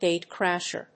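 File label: "gate-crasher", English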